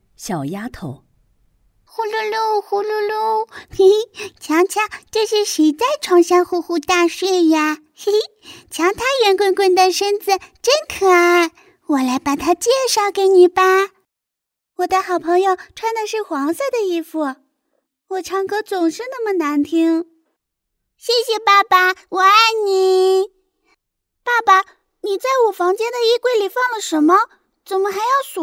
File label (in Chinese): 角色音女童